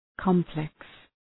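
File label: "complex", English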